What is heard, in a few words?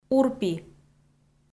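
"урпи", Komi, female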